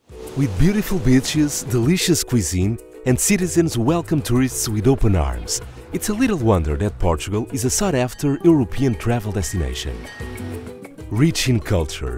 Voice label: english, English Male Voices / Portuguese Accent

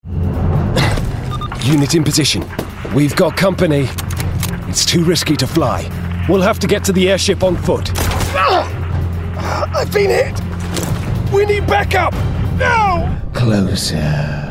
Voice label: oyun, game dubbing male voices british accent

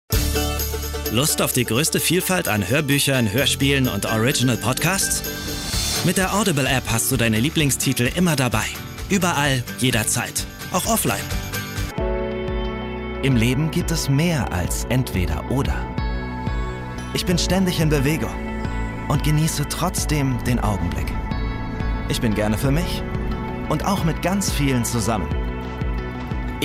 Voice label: german male voices

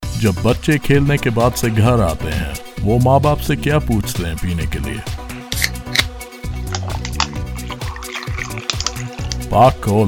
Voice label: urdu hindi

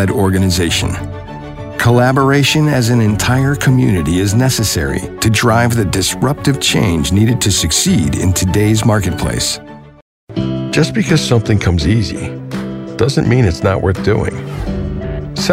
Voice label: american accent, english male voices

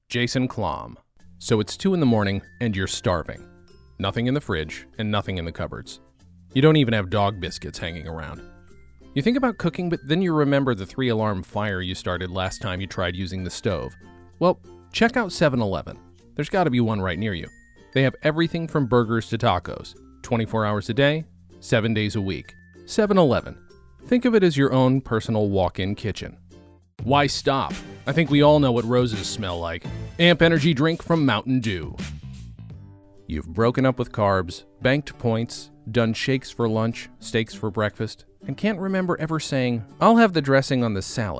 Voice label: reklam, english male voices american accent

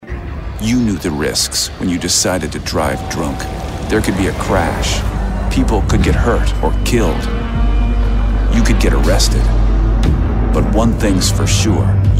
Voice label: american accent, english male voices

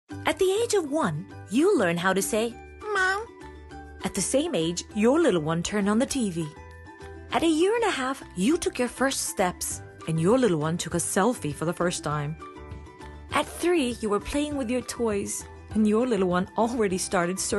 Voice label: ingilizce, english female voices american accent

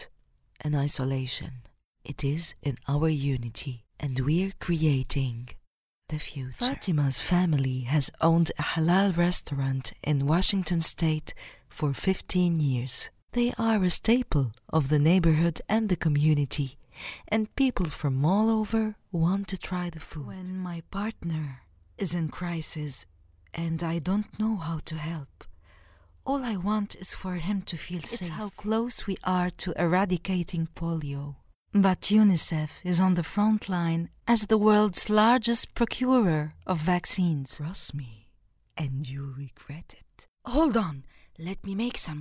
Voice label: english, english female voices arabic accent

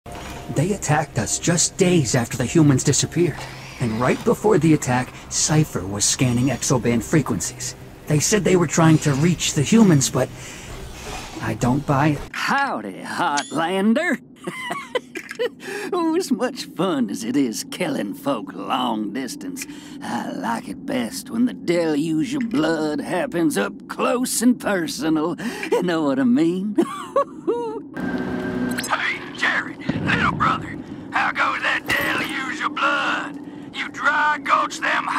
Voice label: oyun, game dubbing male voices american accent

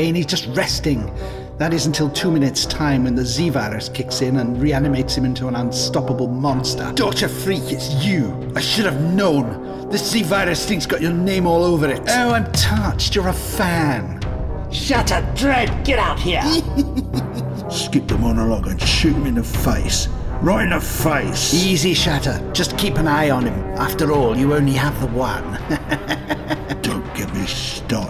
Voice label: gaming, game dubbing male voices british accent

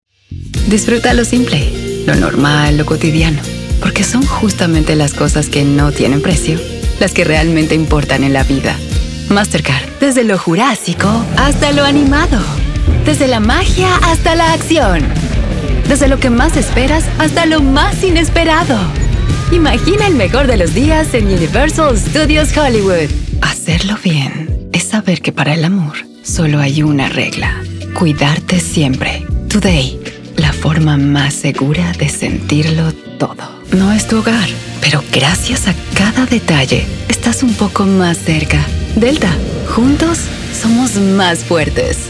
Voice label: spanish, spanish female voices

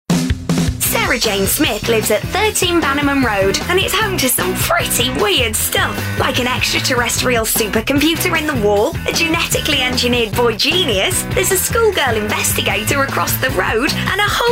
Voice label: english female voices british accent

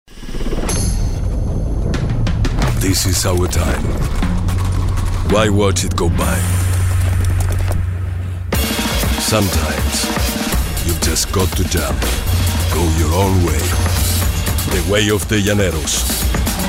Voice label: english, english male voices spanish accent

